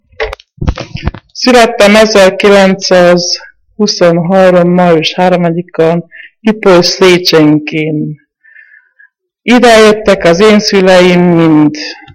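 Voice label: dialect collection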